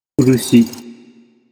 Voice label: "urushi", Japanese